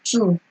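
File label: "too", Nigerian Pidgin, female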